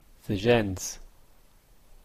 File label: the gents, en-ca; babelcoach